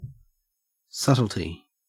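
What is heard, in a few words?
"subtlety", Australian English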